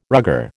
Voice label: "rugger", American